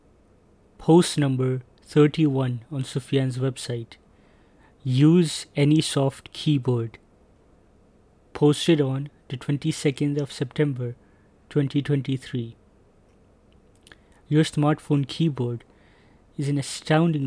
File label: voiceover